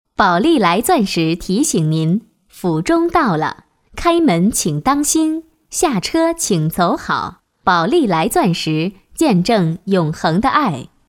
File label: girl